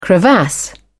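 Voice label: "crevasse", British English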